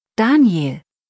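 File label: "Daniel", German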